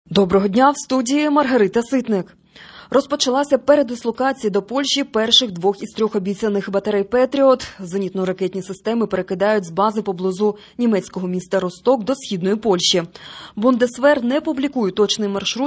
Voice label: Ukrainian Evening News